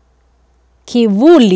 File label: "kivuli", Swahili